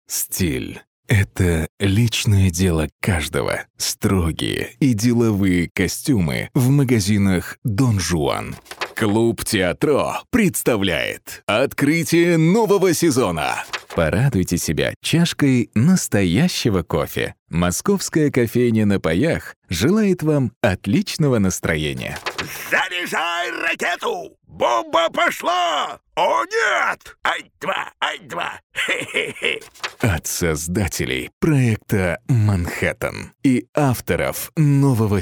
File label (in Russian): muzhskie golosa, diktory